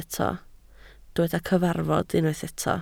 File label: "cyfarfod", Welsh